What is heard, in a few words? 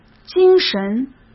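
"精神", Mandarin Chinese; hsk; chinese-flashcards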